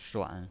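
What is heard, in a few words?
"shuan", Mandarin Chinese pinyin, third tone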